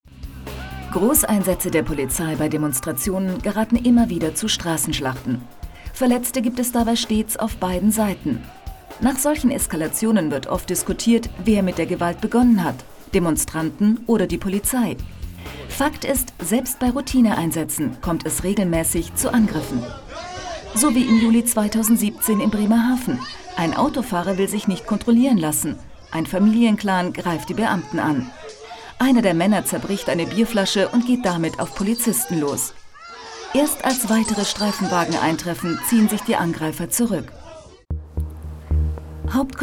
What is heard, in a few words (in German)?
Doku; Die Polizei; ZDF; Drehscheibe